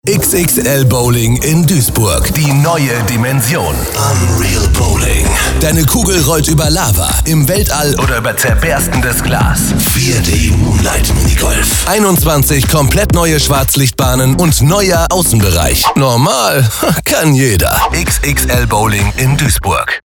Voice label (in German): XXL Bowling Spot